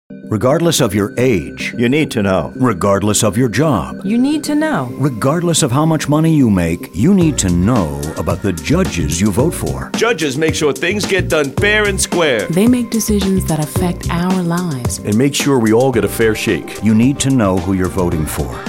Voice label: radio spot